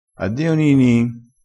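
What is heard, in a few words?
"addio nini", Italian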